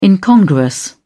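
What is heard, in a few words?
"incongruous", British English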